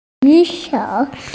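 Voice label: "raise hand", Mandarin Chinese, female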